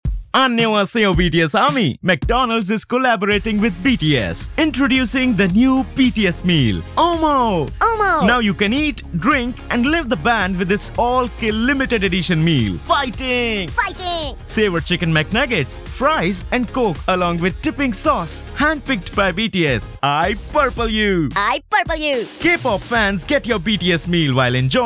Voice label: Indian English VO; McDonalds BTS Meal